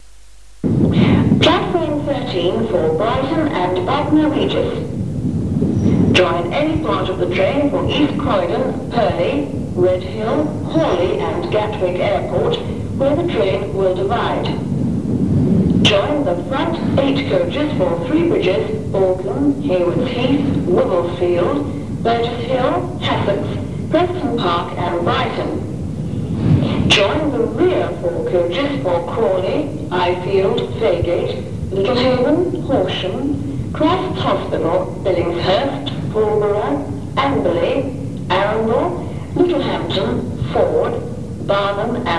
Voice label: Clapham Junction pre recorded platform announcement